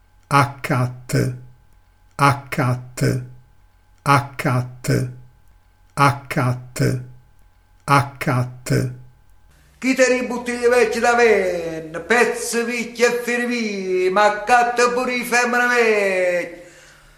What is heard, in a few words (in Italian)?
"accatte", dialetto di foggia